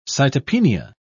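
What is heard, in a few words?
"cytopenia", British English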